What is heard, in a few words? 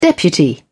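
"deputy", English, British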